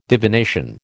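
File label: "divination", American English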